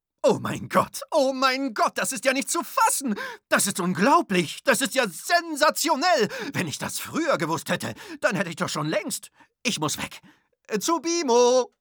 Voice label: werbung begeistert

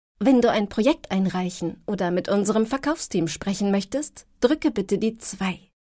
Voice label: Telefon Ansage; LOFT VOICES

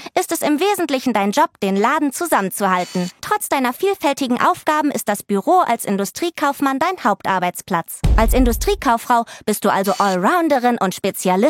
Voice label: Erklärfilm Ausbildung; LOFT VOICES

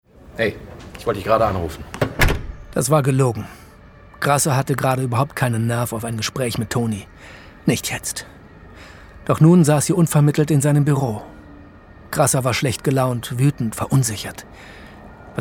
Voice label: Hoerspiel; Die Stimme der Toten

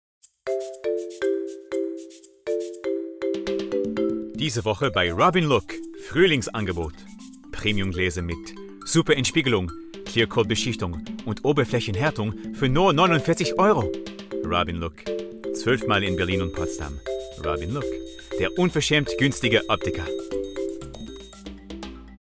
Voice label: DE Werbung; Robinlook; LOFT VOICES

